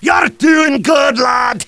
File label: cheer; tf2demoman